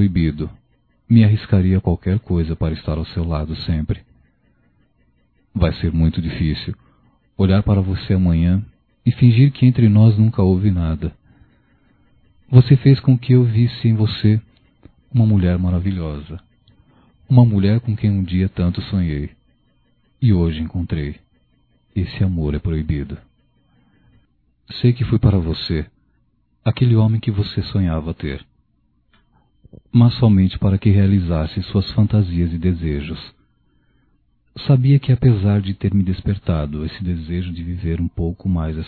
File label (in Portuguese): Aventura Proíbido Masculino, Só Voz; Telemensagens; Telemensagens 2017